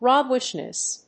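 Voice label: "roguishness", English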